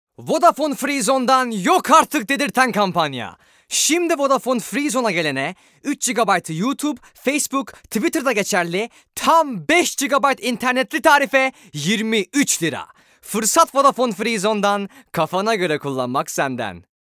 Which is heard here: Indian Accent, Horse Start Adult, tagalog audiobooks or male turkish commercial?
male turkish commercial